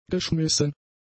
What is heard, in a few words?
"geschmissen", German; adjectives